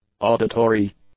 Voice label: "auditory", English; adjectives